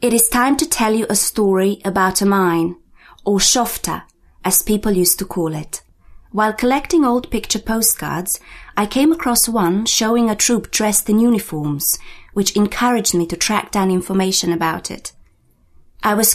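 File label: Serbo-Croatian English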